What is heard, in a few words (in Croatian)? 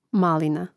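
"malina", Croatian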